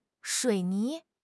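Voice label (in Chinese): "水泥", Chinese; HSK6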